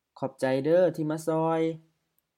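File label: Expressing thanks Dialogue C, Isaan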